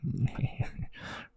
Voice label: Mud man whisper